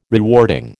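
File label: "rewarding", American English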